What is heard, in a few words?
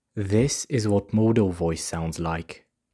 Modal Voice; Linguistics; Phonetics; Speech Production